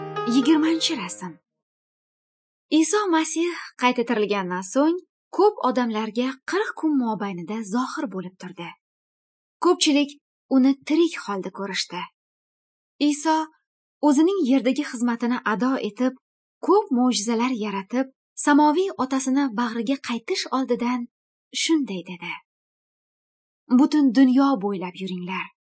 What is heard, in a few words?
good news female uzbek northern